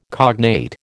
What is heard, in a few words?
"cognate", American